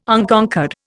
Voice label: "Unconquered", Indian

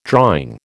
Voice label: "drawing", American

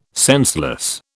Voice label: "senseless", British

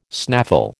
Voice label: "snaffle", American

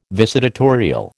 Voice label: "visitatorial", American

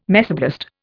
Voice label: "mesoblast", Indian

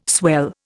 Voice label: "swell", Indian

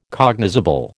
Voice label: "cognizable", American

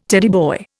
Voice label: "Teddy boy", Indian

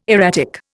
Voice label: "erratic", Indian

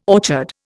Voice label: "orchard", Indian

